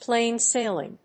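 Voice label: "plane sailing", English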